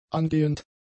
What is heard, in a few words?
"angehend", German; adjectives